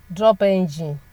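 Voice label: "drop engine oil", Nigerian Pidgin, female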